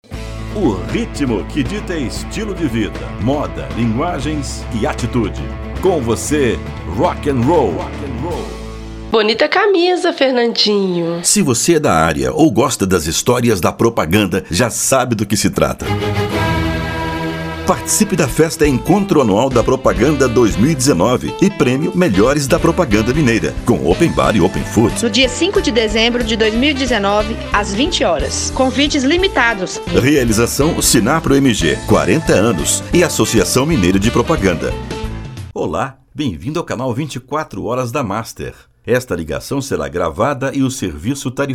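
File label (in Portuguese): Voz Padrão - Grave, Masculino, Português - Brasileiro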